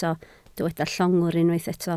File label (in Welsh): "llongwr" Welsh